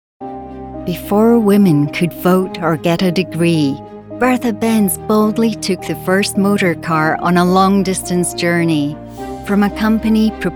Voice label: Corporate Narration